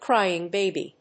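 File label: "Crying Baby", English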